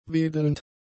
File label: "wedelnd", German; adjectives